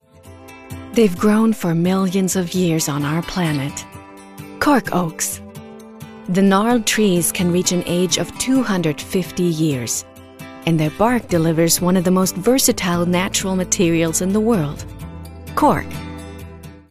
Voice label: US Imagefilm; Birkenstock